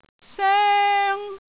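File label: "soeng1", Cantonese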